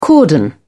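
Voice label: "cordon", British English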